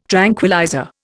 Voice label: "tranquillizer", Indian

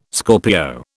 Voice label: "Scorpio", British

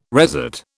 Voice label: "resat", British

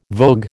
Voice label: "vogue", American

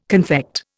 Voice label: "confect", Indian

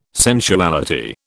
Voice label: "sensuality", British

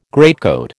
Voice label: "greatcoat", American

